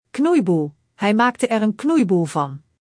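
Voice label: "knoeiboel", Dutch; Spelling Gr5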